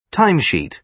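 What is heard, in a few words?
"time sheet", British English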